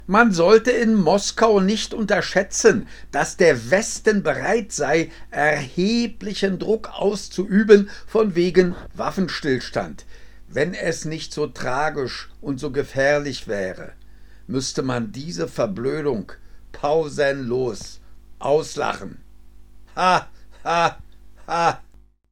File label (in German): deutsche epresser ansagen; Marabu; kolumne egersworte